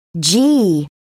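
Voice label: "gee", British English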